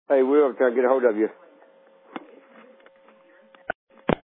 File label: Voicemail